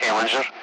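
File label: Speech, Russian; AirDefence; Allied Flight; Avenger; DCS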